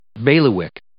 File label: "bailiwick", English